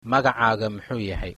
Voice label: name, somali

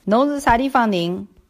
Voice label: where from, shanghainese

